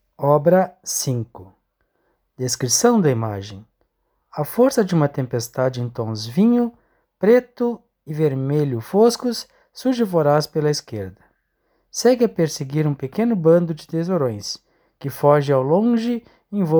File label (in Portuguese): audiodescricao; Galeria virtual